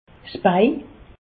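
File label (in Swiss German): "bei", Alsace, Haut Rhin; jambe; medecine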